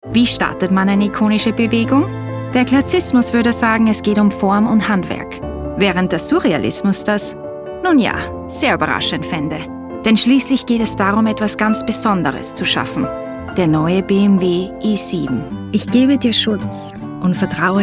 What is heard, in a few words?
Commercial German